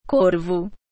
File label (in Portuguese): crow, pt_BR; FoodChain